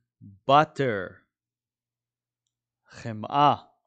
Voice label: "butter" – "חמאה"